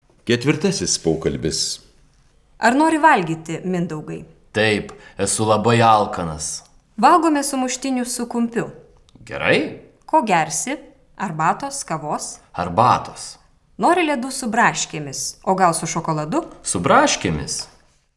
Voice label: Dialog